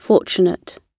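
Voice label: "fortunate", British English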